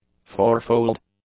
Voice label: "fourfold", English; adjectives